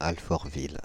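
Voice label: "Alfortville", French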